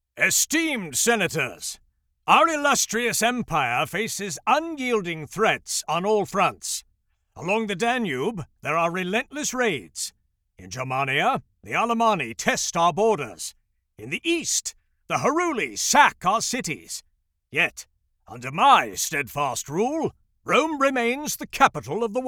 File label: Audiobook British Accent